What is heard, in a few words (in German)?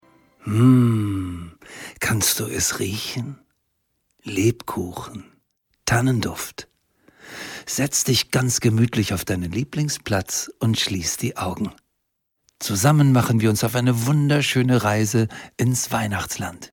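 Hörspielszene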